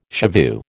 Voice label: "shivoo", American

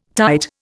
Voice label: "tight", Indian

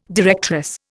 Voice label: "directress", Indian

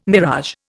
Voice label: "mirage", Indian

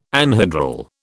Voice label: "anhedral", British

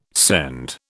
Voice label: "scend", British

